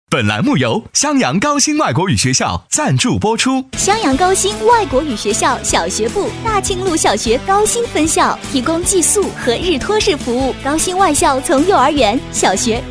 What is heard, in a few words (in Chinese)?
电台包装 男 女 轻快时尚; 高新外国语男6女50